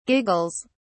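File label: "giggles", British English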